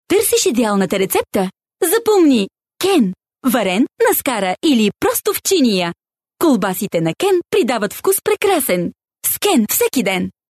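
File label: Bulgarian, female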